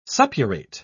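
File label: "suppurate", English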